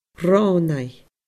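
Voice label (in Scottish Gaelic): "Ronaigh", Scottish Gaelic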